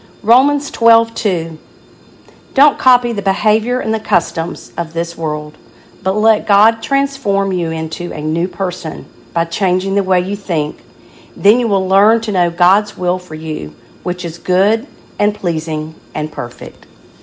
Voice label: NT Reading

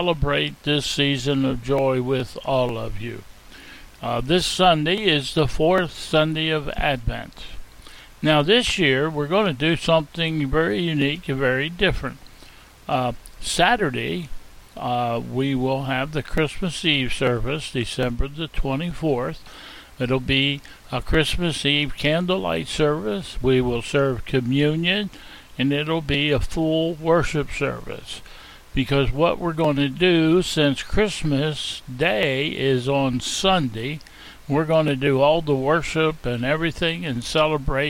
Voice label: Welcome and Announcements

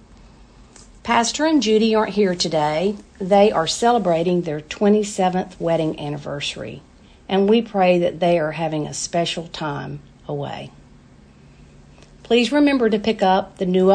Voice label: Welcome and Announcements

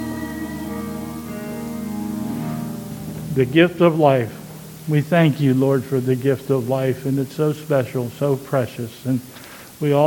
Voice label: Closing Remarks and Chorus

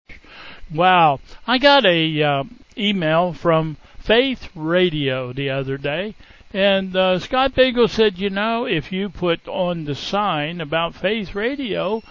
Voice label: Welcome and Announcements

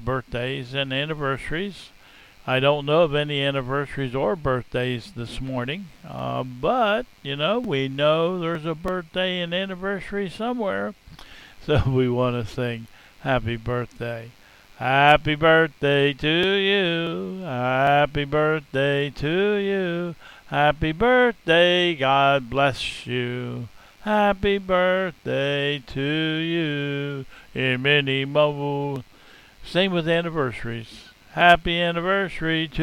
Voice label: Welcome and Announcements

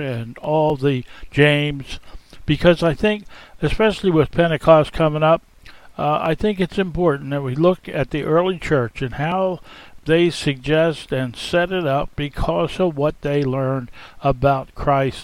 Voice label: NT Reading

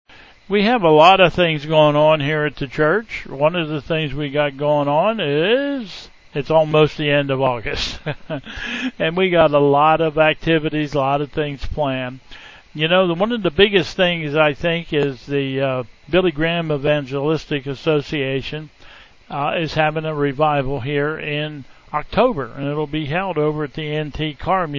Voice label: Welcome and Announcements

